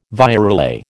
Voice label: "virelay", American